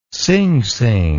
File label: "singsing", words